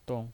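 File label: "dong" Mandarin Chinese, neutral tone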